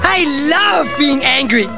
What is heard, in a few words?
angry; ren